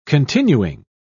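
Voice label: "continuing", British English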